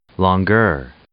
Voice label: "longueur", English